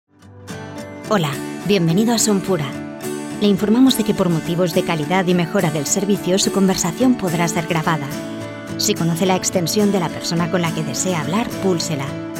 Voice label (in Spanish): CENTRALITA; Sonpura